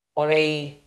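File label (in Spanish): Voice Acting es F, Spanish, female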